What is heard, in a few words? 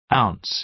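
"ounce", American English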